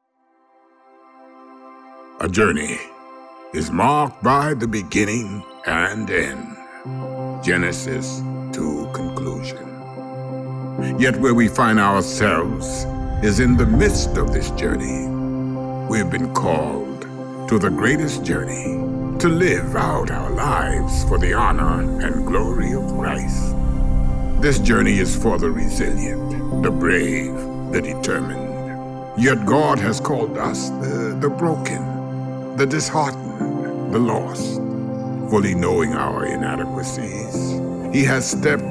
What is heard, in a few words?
Narrator; Ancient Paths Bumper